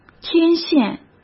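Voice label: "天线", Mandarin Chinese; chinese-flashcards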